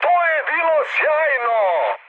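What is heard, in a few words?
Serbian trick mood high; speech; War Thunder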